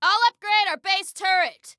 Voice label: Upgrade Self Turret, Female